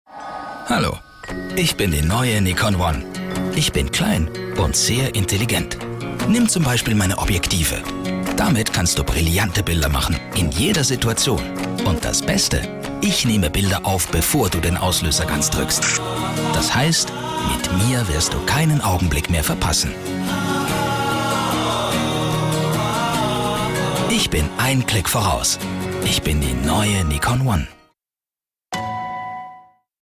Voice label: German commercial